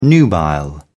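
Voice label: "nubile", British English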